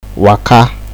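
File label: "waka", Nigerian Pidgin, male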